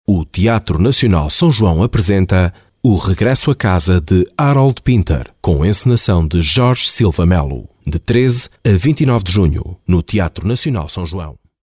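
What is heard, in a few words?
Portuguese, male, narration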